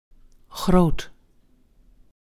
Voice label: "groot", nl

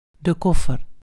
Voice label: "koffer", nl

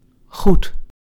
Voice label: "goed", nl

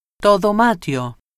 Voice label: "kamer", gr

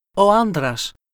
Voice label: "man", gr

